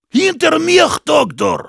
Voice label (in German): Heavy special completed, de